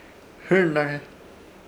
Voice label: "Hɨrnãrhe" trancos o pedasos de palmas, Ishir Chamacoco